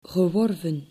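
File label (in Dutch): "geworven", Dutch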